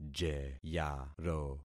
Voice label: "jeyaro", concat syllables; Affixing experiment